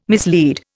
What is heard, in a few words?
"mislead", Indian